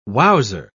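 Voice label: "wowser", British English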